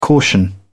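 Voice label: "caution", British English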